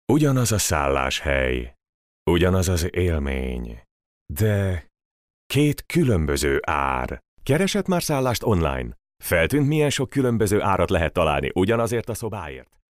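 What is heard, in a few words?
Hungarian, male, narration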